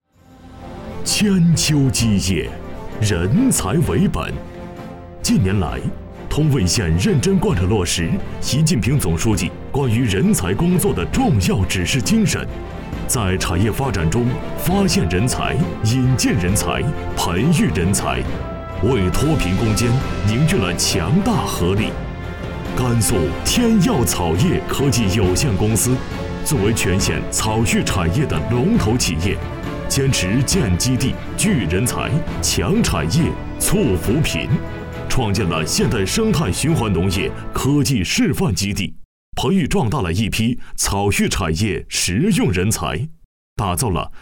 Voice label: 男-专题-扶贫; 通渭县

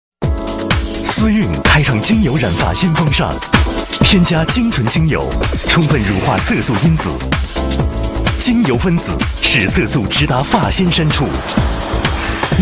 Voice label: 男 广告 丝蕴精油染发霜, 国语男声配音

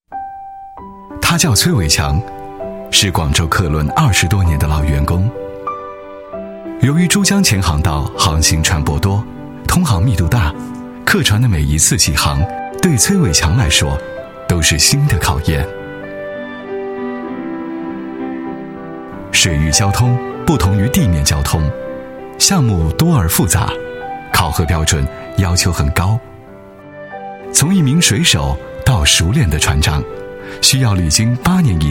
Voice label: 男 人物专题 客轮 抒情 亲切 自然, 国语男声配音 磁性; 广州客轮

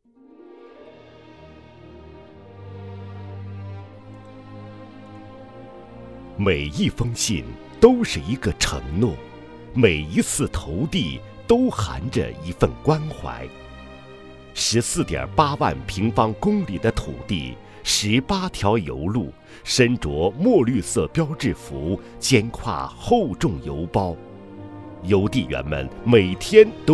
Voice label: 散文 赞颂邮递员, 国语男声配音; 红色专题

